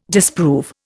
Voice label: "disprove", Indian

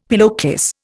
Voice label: "pillowcase", Indian; Dictionary

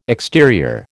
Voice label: "exterior", American

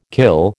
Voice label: "kill", American

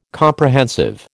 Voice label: "Comprehensive", American

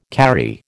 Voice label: "karri", American

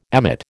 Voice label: "emmet", American

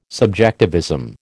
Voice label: "subjectivism", American

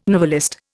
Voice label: "novelist", Indian

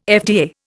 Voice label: "FDA", Indian

